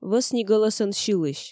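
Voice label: "вӧсни гӧлӧсӧн сьылысь", Komi, female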